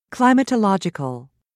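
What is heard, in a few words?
"climatological", British English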